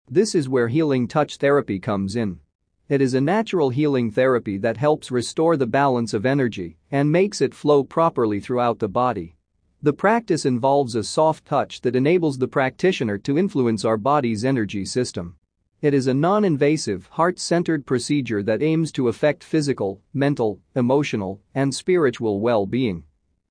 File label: Male